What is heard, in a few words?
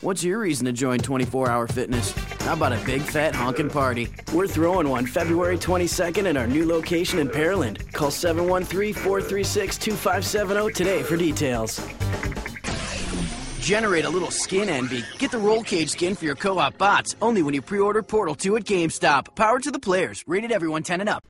Announcer